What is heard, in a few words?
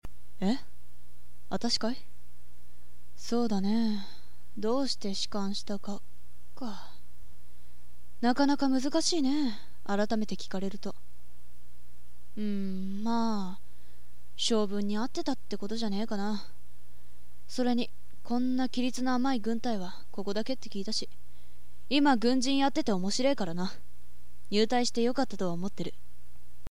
woman; 【女性】